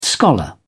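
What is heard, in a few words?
"scholar", British English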